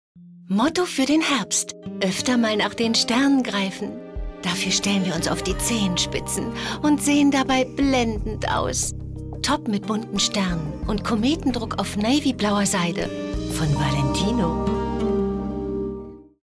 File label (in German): sprachproben spritzig